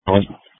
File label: Police Tactical Encrypted